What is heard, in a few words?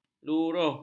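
"luruh", Malay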